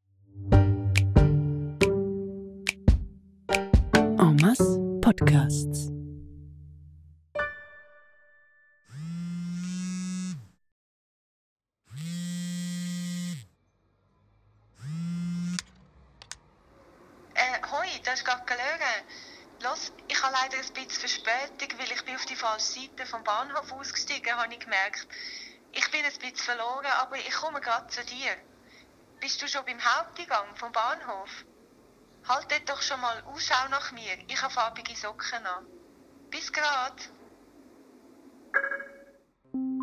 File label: Trailer